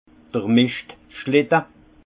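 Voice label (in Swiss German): "mischt schlitte", Haut Rhin; vin; traîneau de fumier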